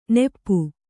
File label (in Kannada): "ನಿಪ", Kannada